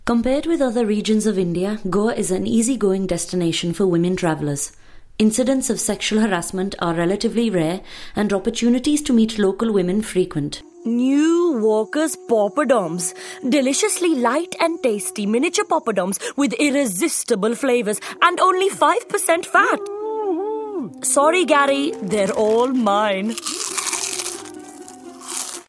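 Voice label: Hindi English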